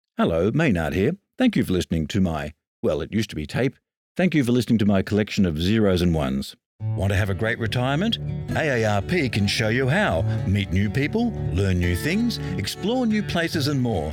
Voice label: voice over